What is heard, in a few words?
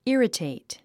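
"irritate", English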